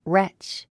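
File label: "wretch", English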